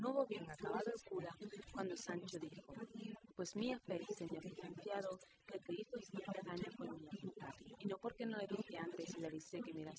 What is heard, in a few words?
female; SASSEC07